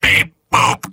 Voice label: soldier robot; mvm